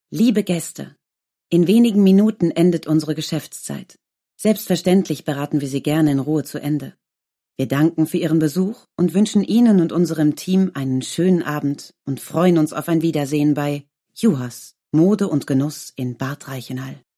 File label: Instore Spot; Platingroup